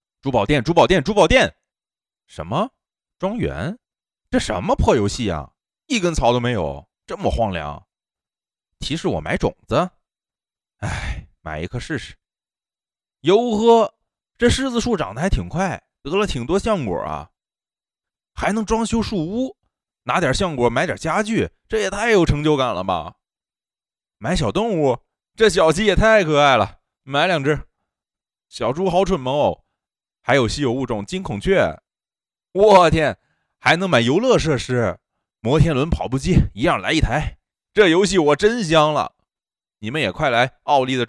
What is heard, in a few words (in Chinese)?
游戏配音